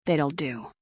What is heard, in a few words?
fem